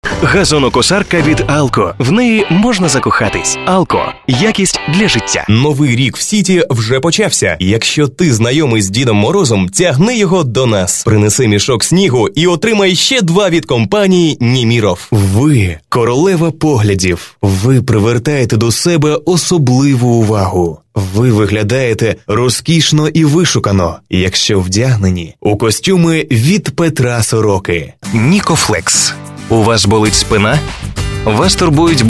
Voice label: ukr

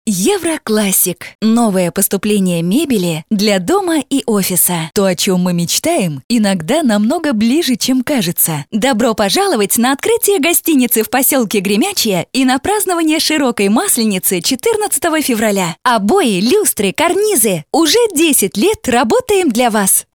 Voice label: Rus

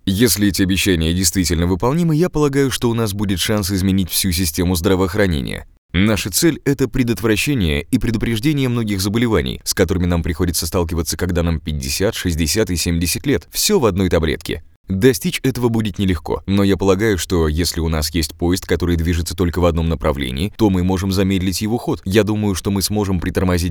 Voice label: srednaya dinamika rus

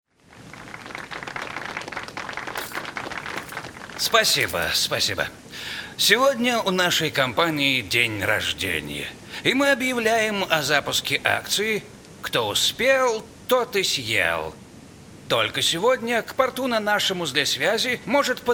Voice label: dublaj rus

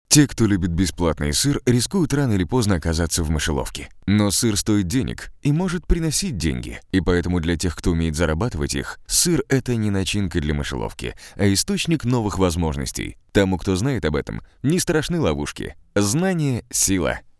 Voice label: povest rus

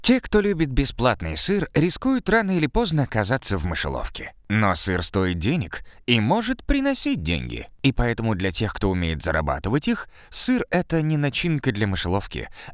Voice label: zg igrovoe rus

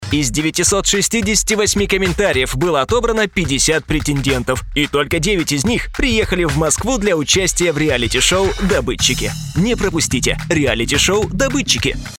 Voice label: tv anons

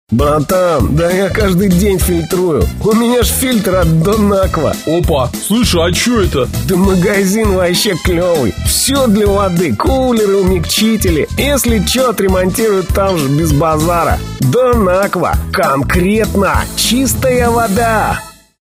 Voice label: Parod Gopnik

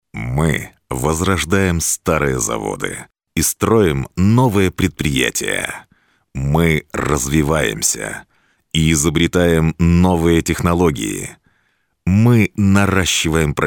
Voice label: pafos rus

